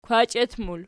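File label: "kwachiet", plural, Archi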